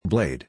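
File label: "Blade", English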